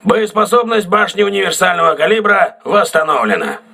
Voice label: voice message auxiliary caliber turret repaired, crew ships russian